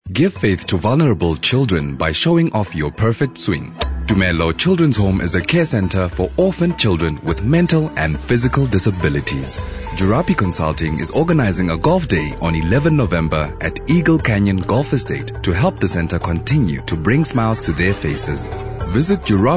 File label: SoftSell South African Neutral